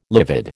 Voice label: "livid", American